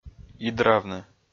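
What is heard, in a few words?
"идравны", Komi, male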